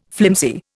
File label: "flimsy", Indian